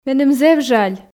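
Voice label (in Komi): меным зэв жаль, Komi, female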